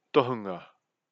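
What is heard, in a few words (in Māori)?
"tohunga", Māori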